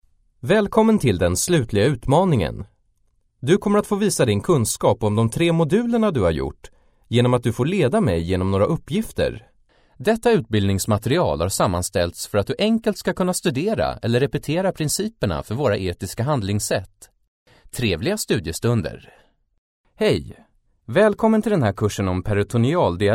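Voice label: Swedish male voice over, narrative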